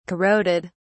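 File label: "corroded", British English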